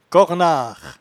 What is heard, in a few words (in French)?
"cornar", patois jura